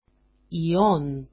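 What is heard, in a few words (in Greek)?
"ion", Greek; ιόν =going (anc.gre.)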